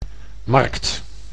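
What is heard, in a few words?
"markt", Dutch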